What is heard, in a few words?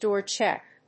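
"DOOR CHECK", English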